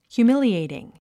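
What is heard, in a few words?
"humiliating", English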